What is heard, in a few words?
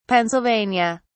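"pennsylvania", English, British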